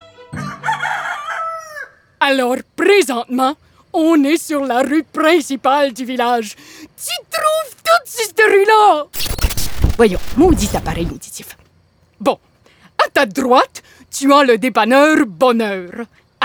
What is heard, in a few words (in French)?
voix personnage balado jeunesse